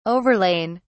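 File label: "overlain", British English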